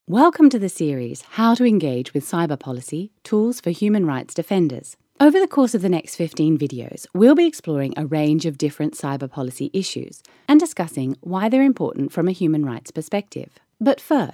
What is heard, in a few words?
Explainer Video Human Rights